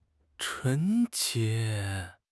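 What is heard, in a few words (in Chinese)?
"纯洁", Chinese; HSK6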